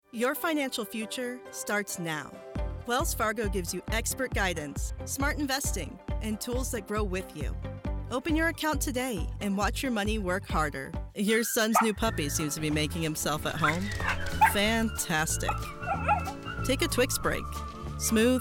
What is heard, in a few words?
Commercial